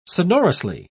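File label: "sonorously", British English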